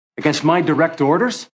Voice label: Against My Orders, Yelling, Male; Yelling Sound Effects

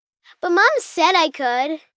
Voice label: Whine Mom Said, 1 child, 8 yrs; Whining; VoiceBox

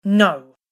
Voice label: "No" Angry Female Voice; Recorded Voice Sound Effects

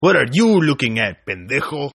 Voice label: Toughguy Looking Spanish, Tough Hispanic Male; Attitude

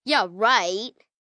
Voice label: Yeah Right, Attitude, 1 girl, 9 yrs

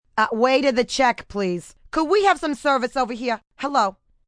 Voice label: Service Here, Waiter, female; Talking

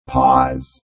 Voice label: Robot Voice "Pause"; Robot Sound Effects; Lynne Music

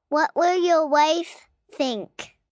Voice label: Will Wife Think, Adult Phrase, 1 girl, 4 yrs; Talking; VoiceBox

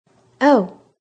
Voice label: Woman says Oh, A startled woman says; Talking

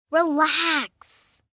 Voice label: Relax, 1 girl, 9 yrs; Talking; VoiceBox

